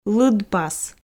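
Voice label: "лыдпас", Komi, female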